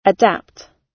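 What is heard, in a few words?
"adapt", British English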